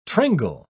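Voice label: "tringle", British English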